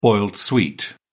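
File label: "boiled sweet", British English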